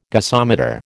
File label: "gasometer", American